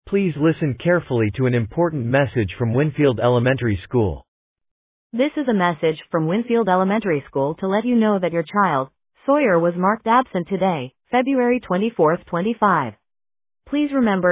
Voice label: Voicemail